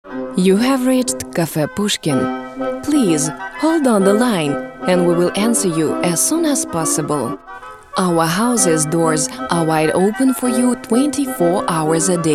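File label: Russian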